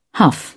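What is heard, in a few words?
"huff", English, uk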